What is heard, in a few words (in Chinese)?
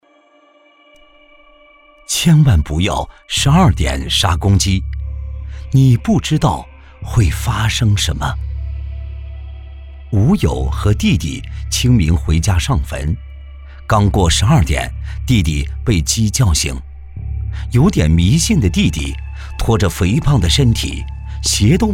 男 悬疑 杀错鸡